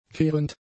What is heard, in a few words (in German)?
"kehrend", German; adjectives